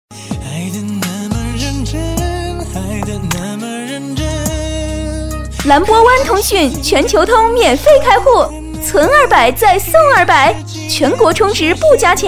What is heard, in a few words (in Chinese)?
广告; 蓝波湾通讯